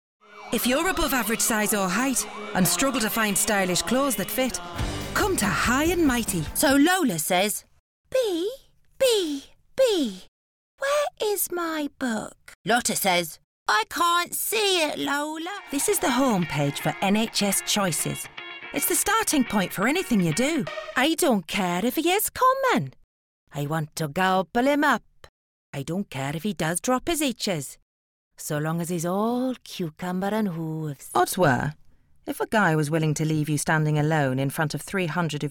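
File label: British English, Characters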